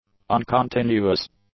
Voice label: "uncontinuous", English; adjectives

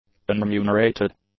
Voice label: "unremunerated", english; adjectives

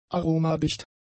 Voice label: "aromadicht", German; adjectives

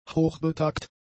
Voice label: "hochbetagt", German; adjectives

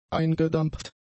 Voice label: "eingedampft", German; adjectives

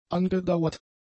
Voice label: "angedauert", German; adjectives

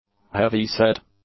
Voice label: "heavyset", English; adjectives

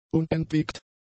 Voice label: "unentwegt", German; adjectives

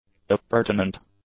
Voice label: "appurtenant", English; adjectives

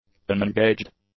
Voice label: "unengaged", english; adjectives

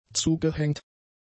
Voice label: "zugehängt", German; adjectives

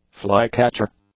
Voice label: "flycatcher", English; nouns

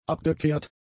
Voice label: "abgekehrt", German; adjectives

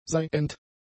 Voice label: "seiend", German; adjectives

